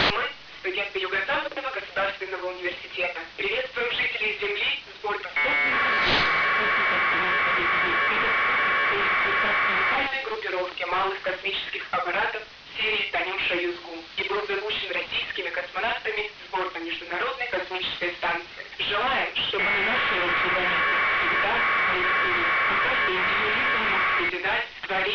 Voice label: russian